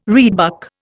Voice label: "reedbuck", Indian

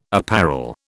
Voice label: "apparel", British

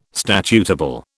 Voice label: "statutable", British

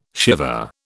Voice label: "Shiva", British

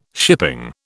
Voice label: "shipping", British

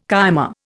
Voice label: "cyma", Indian

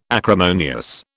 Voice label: "acrimonious", British

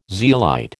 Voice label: "zeolite", American

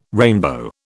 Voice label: "rainbow", British

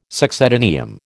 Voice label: "succedaneum", American

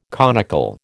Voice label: "conical", American

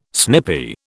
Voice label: "snippy", British

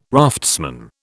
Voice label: "raftsman", British

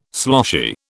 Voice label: "sloshy", British

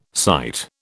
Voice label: "sight", British

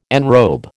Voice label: "enrobe", American

